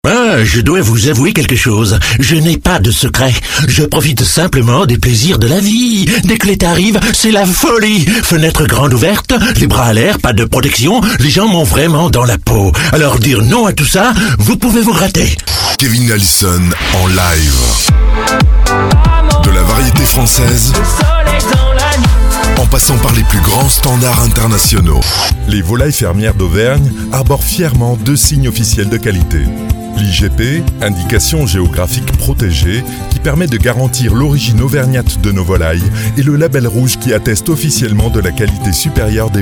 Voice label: French Voice